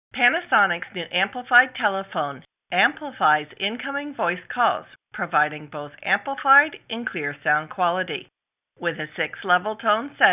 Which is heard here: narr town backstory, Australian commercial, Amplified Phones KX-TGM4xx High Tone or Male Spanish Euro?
Amplified Phones KX-TGM4xx High Tone